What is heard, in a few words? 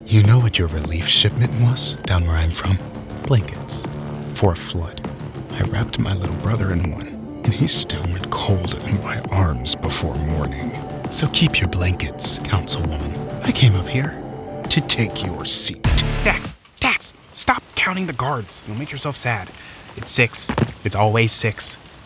Animation